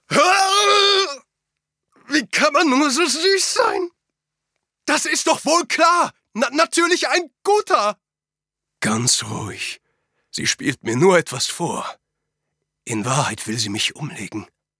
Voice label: Anime